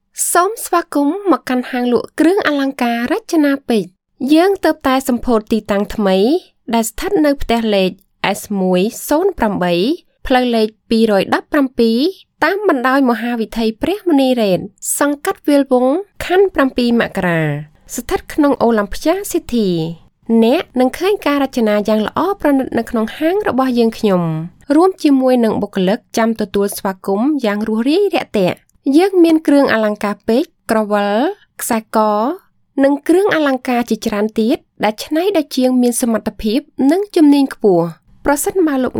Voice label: Khmer female voice sample